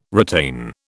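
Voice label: "retain", British English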